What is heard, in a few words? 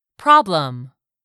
"problem", english; 3000 words